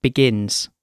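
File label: "begins", British English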